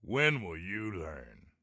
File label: Taunt Learn, Soldier Male; Soldier Male 03